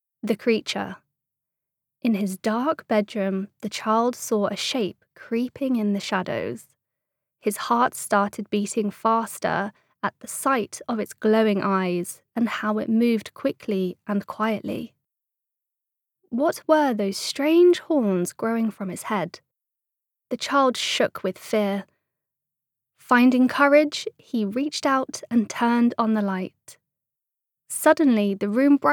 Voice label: uk fagbok, english-uk, female

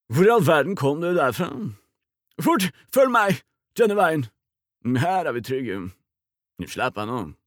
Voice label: dub, character

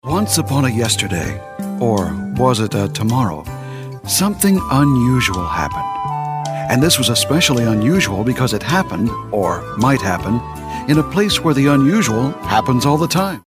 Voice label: US narration soft

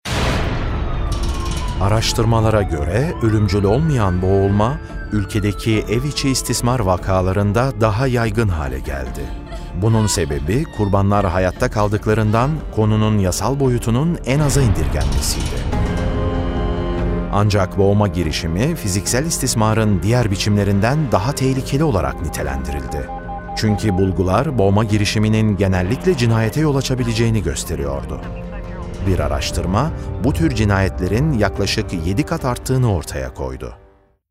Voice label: tr documentary, male, turkish

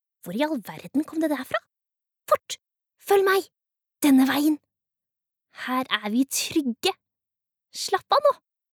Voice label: Norwegian dub, female

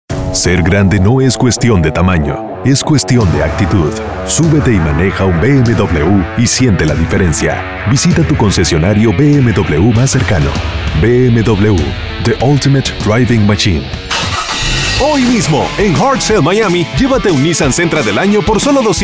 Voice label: spanish latin american commercial, mann; spansk-latin-amerika

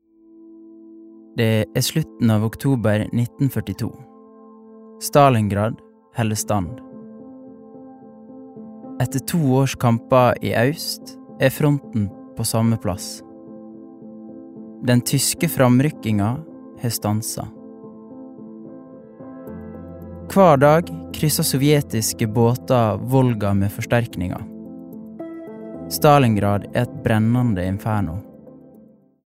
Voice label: no documentary, norsk, mann

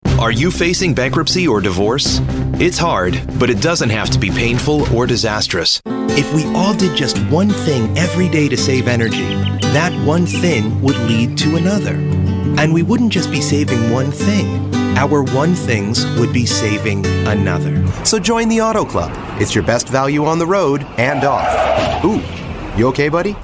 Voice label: us commercial

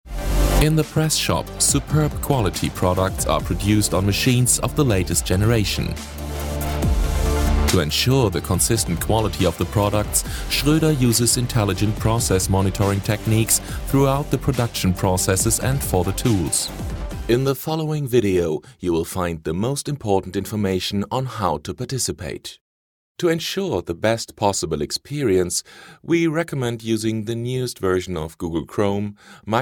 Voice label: de corporate english

